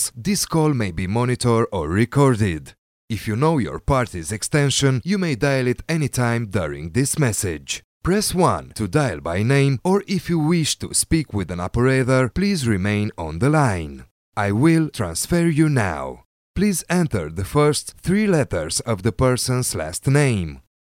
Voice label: male romanian voice over talent english

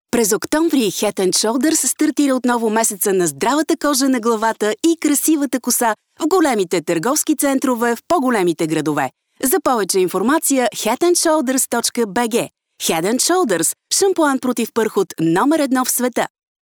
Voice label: female bulgarian voice over sales

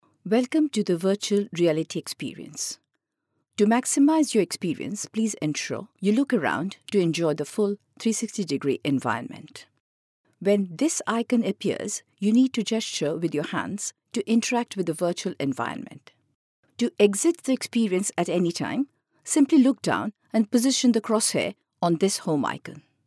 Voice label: female indian voice over narrative

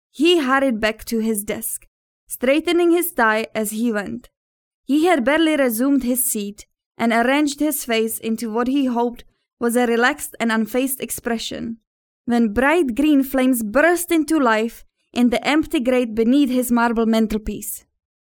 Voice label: female czech voice over english

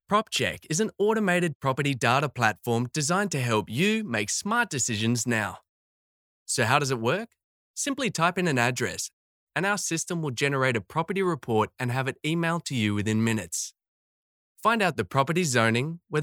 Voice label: male australian voice over narrative

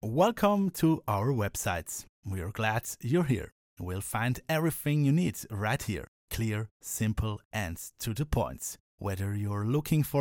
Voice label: male german voice over talent english

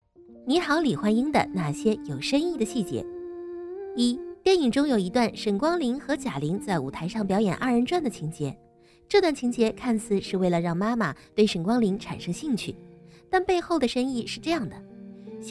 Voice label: female chinese voice over narrative, mandarin

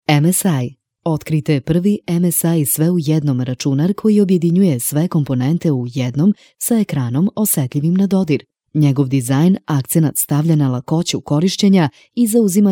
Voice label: female serbian voice over sales

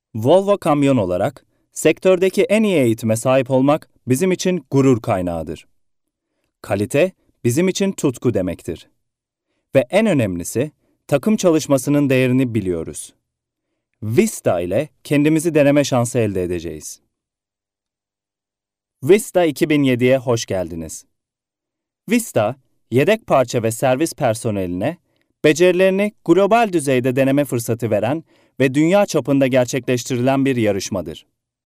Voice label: male turkish voice over talent narrative

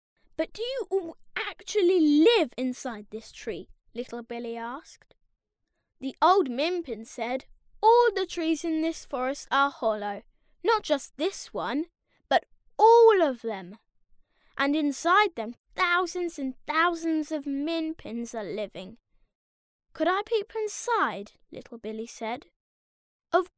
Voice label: child voice over

